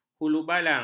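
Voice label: "hulubalang", Malay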